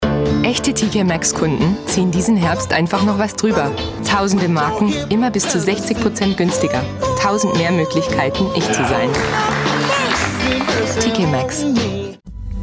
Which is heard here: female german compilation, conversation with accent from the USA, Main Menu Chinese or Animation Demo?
female german compilation